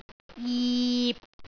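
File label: "jip6", Cantonese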